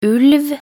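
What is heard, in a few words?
"ulv", Norwegian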